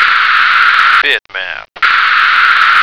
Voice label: "bitmap", American

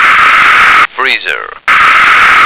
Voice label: "freezer", American

